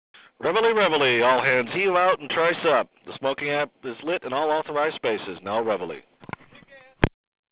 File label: voice message